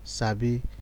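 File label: "sabi", Nigerian Pidgin, male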